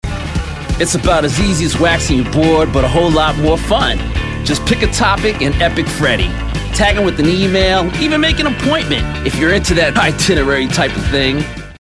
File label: Surfer